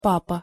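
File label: "papa", Russian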